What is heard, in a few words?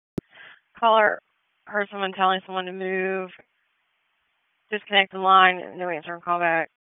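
Police Northeast District Dispatch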